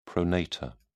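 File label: "pronator", British English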